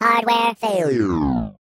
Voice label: "Oh dear", Robot; hedgewars